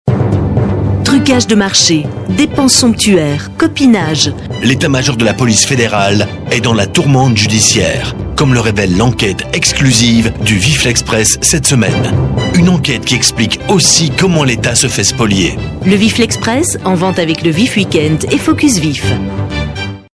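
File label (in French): Belgian French, female, commercial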